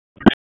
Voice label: Police Northwest District Tac 1; wake p25

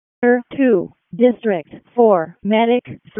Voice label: EMS ALERT

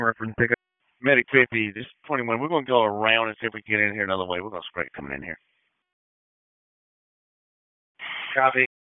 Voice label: PS TAC, p25

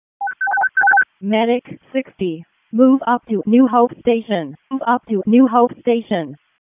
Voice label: EMS ALERT; wake; p25

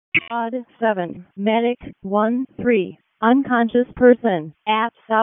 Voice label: WC EMS ALERT, p25

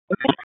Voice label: Police Southwest District Tac 1; Raleigh; wake

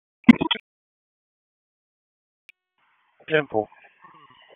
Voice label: FIRE OPS, p25; wake p25

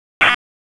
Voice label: Police Tac, p25; wake

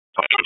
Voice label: Police Northeast District Disp, p25; Raleigh

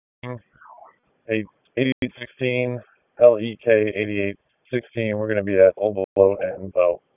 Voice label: Police Northeast District Disp, p25; Raleigh Police Northeast District Disp; wake p25

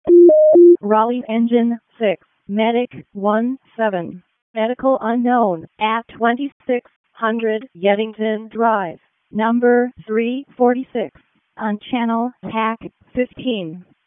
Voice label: WC EMS ALERT, p25; wake p25

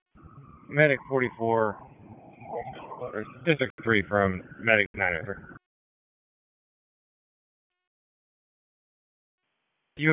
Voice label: PS TAC, p25; wake

